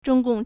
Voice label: "zhong1 gong4", Chinese

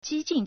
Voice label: "ji4 jing4", Chinese

